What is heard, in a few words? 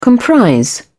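"comprise", English, British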